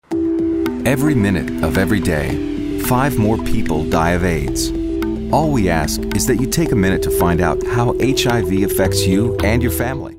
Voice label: smooth mellow

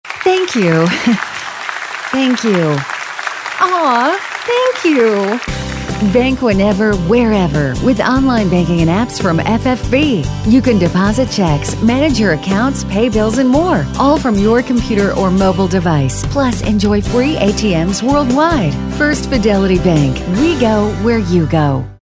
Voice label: anti announcers upbeat

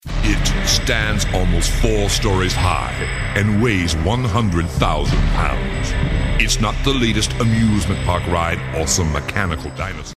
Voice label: Tough; Voice123

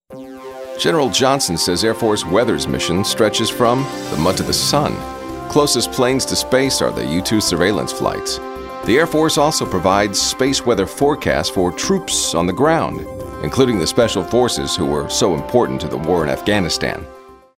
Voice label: newscaster

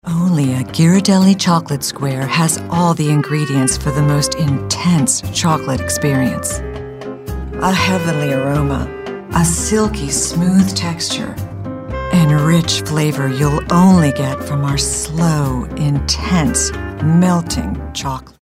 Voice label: Romantic

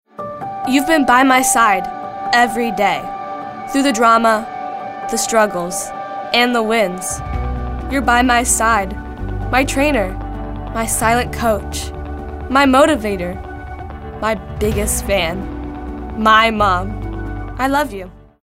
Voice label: inspirational

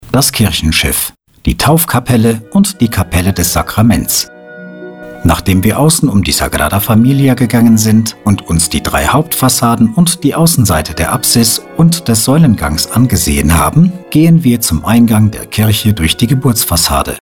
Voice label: Documentary

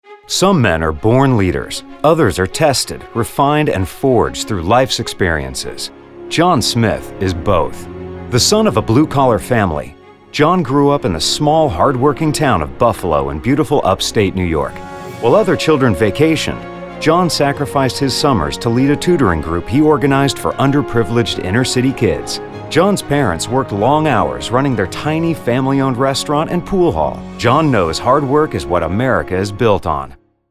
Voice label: Inspirational Poltical Spot

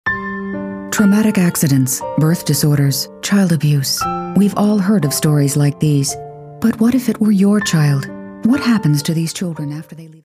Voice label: caring

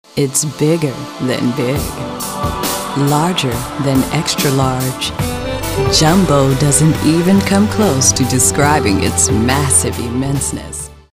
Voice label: sexy

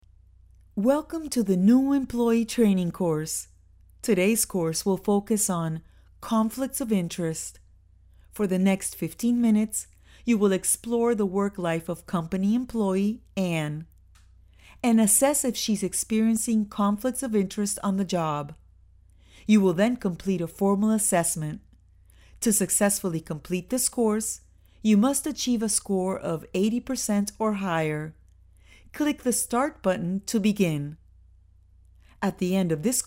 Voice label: ENGLISH VO - Narration

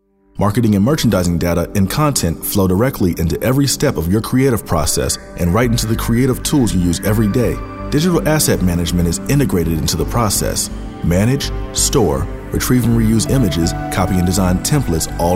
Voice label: informational

